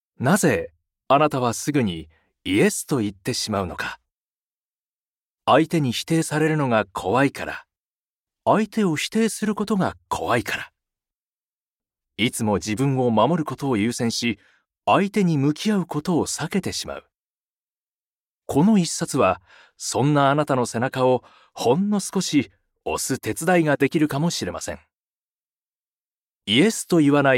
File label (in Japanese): ナレーション